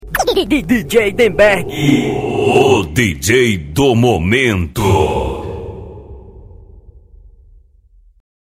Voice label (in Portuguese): vinheta